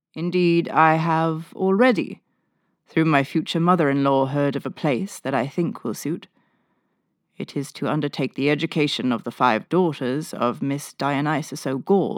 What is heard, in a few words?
female Irish and USA English with slight undetectable accent audiobook; irish southern